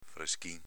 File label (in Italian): "freschin", dialetto veneto